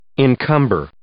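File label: "encumber", English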